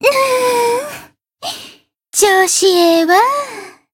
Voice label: growth, jp; hoozuki